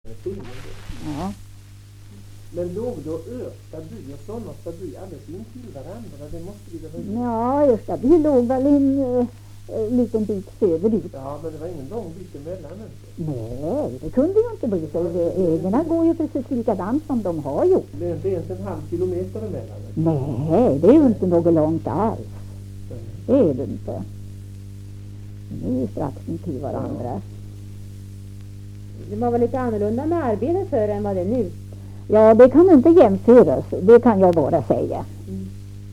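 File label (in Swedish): Kumla Närke, dialekter, Svenska sagor; Folkminnen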